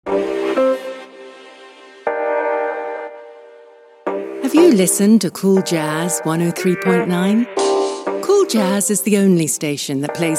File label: UK English Radio; CoolJazz 103.9